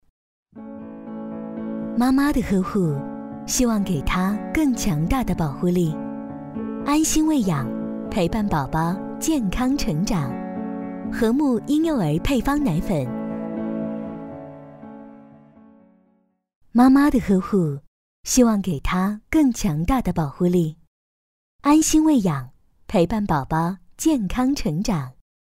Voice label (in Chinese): 温馨广告 婴幼儿配方奶粉; 荷慕婴幼儿配方奶粉